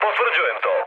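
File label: Serbian player killed enemy mood high, speech; War Thunder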